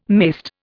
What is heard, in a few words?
"mayst", Indian